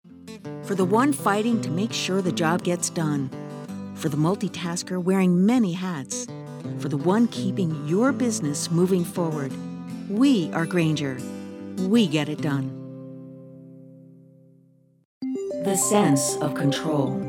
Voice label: commercial vo